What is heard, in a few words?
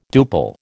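"duple", American English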